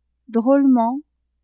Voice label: "drôlement", French; adverbs

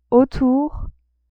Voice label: "autour", French; adverbs

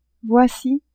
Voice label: "voici", French; adverbs

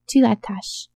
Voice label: "tu attaches", French

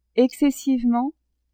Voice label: "excessivement", French; adverbs

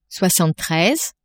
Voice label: "soixante treize", French; nombres

